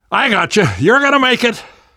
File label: revive friend loud, namvet, survivor voice; Left 4 Dead 2; left4dead2 dlc3